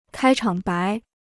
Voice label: "开场白", Chinese